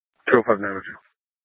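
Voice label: Scottsdale Police Department South Dispatch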